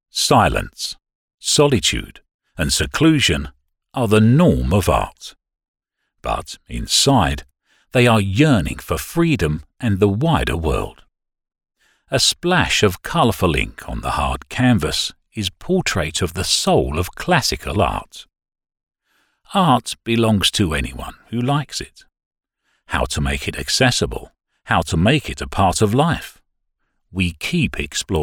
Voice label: 配音读 subtitles video 试音